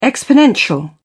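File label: "exponential", English, British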